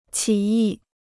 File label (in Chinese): "起义", Chinese